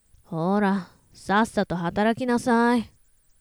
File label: cure, rpg voice